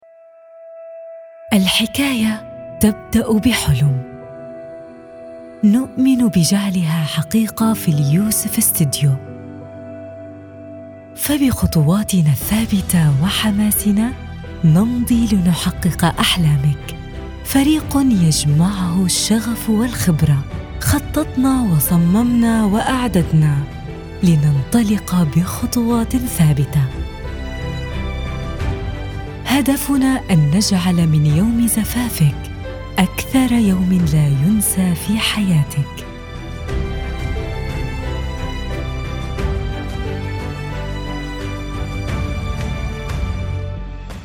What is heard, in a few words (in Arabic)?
فصحى